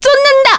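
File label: Vox Skill, Korean; Naila; kingsraid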